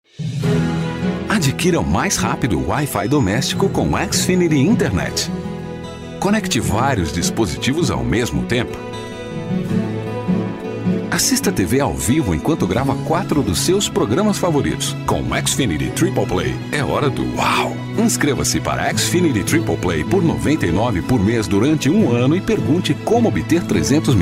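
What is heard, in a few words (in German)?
Portuguese Fremdtake Freundlich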